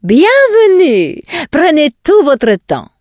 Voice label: draenei, male; bienvenue prenez votre temps; PhoenixCraft-1.16.5; PhoenixNPCPack; minecraft

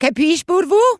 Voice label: dwarf, female; PhoenixCraft-1.16.5; PhoenixNPCPack; minecraft

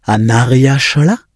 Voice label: bloodelf, male; PhoenixCraft-1.16.5; PhoenixNPCPack; minecraft

